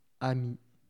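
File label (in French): "ami" French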